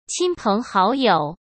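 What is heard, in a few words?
"亲朋好友", Mandarin Chinese; hsk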